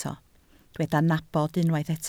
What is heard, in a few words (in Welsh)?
"nabod", Welsh